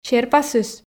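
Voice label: "серпасыс" Komi, female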